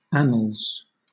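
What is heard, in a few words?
"annals", British English; pronunciations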